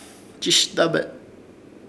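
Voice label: "Chɨshdabe", Chamacoco word, sorprendido; Ishir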